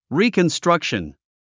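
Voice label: "reconstruction", English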